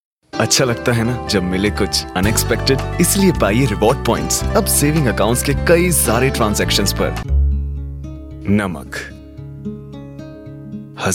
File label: Hindi, Male